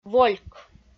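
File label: "вольк", Komi, female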